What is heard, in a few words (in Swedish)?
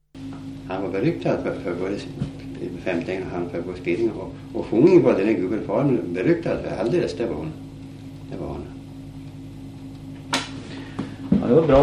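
Dalby Värmland, dialekter; Svenska sagor; Folkminnen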